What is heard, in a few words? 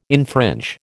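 "infringe", American English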